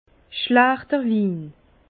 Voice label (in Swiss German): "schlachterwin", Bas Rhin; vin; mauvais vin